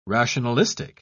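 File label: "rationalistic", British English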